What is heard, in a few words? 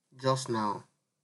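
"just now", Nigerian Pidgin, male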